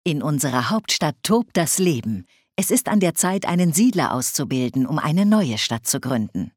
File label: Build New City, Speech German Advisors; Civ5